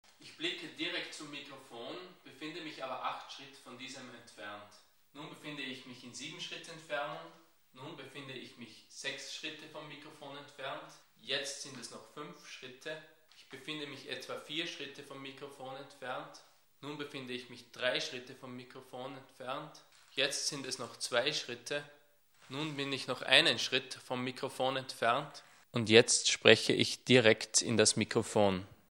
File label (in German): sm58 entfernung